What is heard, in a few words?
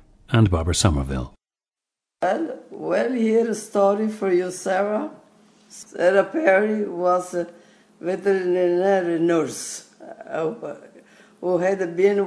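italy, International Dialects of English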